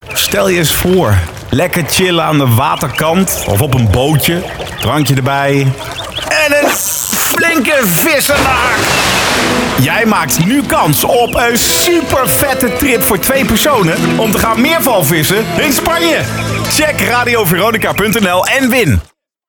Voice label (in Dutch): promo